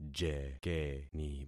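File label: "jekeni", concatenated syllables; Affixing experiment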